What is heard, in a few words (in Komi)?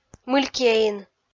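"мылькъяин", Komi, female; kpv audio dict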